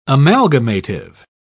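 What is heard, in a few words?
"amalgamative", English, British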